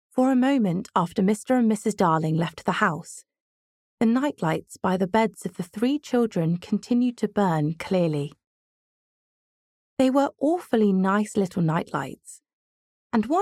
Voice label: Audiobook; regional accents